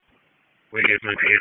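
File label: Dispatch, p25; WSO DISP 1; Wake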